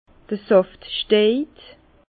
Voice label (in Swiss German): "saftstejt", Bas Rhin; vin; montée de la sève